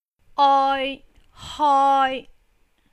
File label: "oi hoi1", cantonese